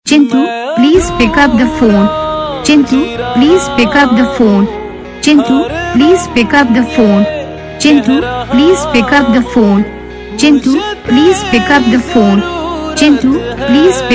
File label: chintu pick up phone saying; Your Name Ringtones A To Z Names